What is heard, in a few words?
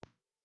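"pick", spoken word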